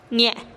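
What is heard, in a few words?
"nie-e", Teotitlán del Valle Zapotec; mi pie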